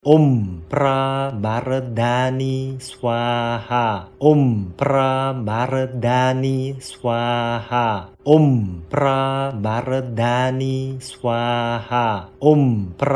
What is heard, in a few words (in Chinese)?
地藏滅定業咒(直誦); 地藏王菩薩 Kṣitigarbha